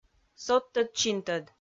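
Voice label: "содтӧд-чинтӧд", Komi-Zyrian, female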